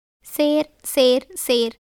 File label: Tamil